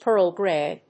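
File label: "pearl gray", English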